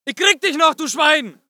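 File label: voice german infantry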